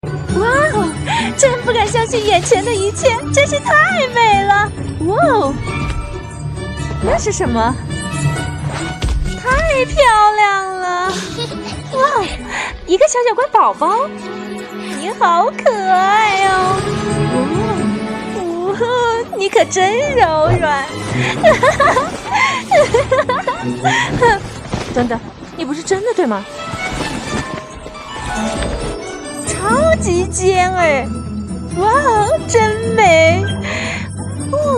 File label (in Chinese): 中文配音; 雪怪大冒险